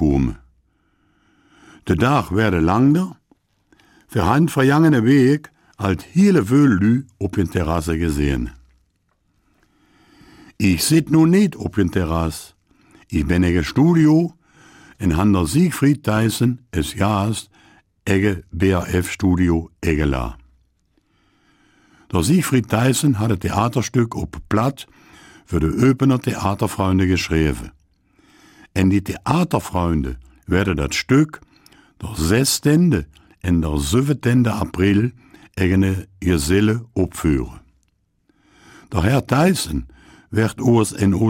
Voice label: Eupener Mundart; D'r Jong, et Mäddche en de Ärvtant

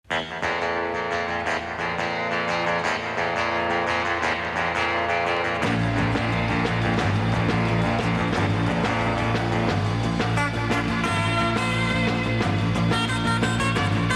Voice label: Kelmiser Mundart; Gedenkfeierlichkeiten zum Ersten Weltkrieg

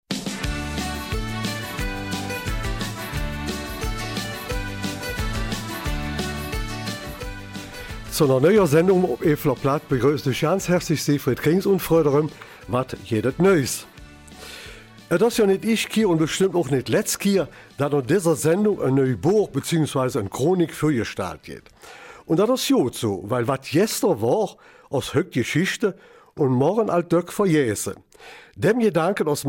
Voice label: Eifler Mundart; Dorfchronik Bütgenbach

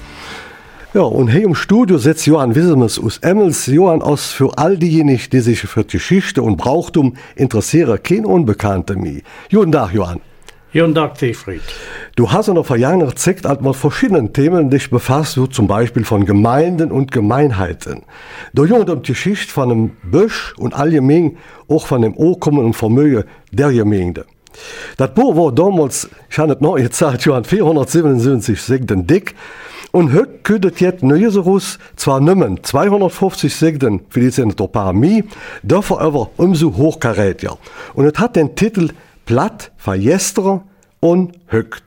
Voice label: Mundart Platt; va jäster(en) on hökt

